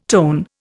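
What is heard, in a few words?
"toneburst", Indian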